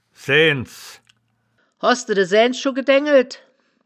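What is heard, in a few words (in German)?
"saeaens", wittlicher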